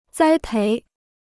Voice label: "栽培", Chinese

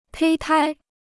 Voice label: "胚胎", Chinese

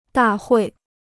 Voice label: "大会", Chinese

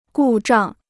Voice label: "故障", Chinese

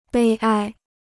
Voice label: "悲哀", Chinese

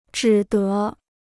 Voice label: "只得", Chinese

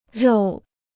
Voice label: "肉", Chinese

